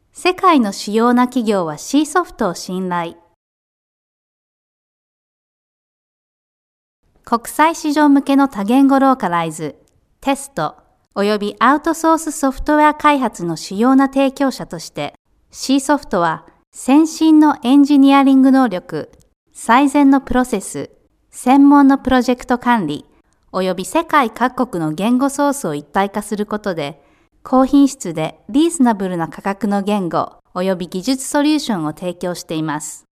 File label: Japanese Female, voiceover; Multilingual Voiceover